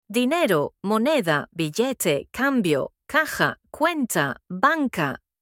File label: money in spanish